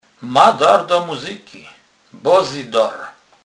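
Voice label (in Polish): "dar", Kurpie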